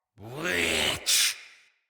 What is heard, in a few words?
Attack Knight Male, Battle; Mendicant